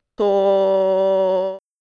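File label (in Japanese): "と", Japanese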